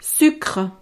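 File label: "sucre", French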